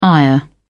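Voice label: "ire", English, British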